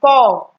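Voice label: "pour", Nigerian Pidgin, female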